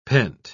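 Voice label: "pent-", English, British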